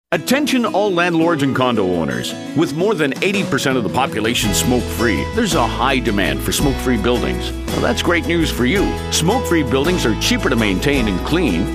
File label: landlord ad; SMDHU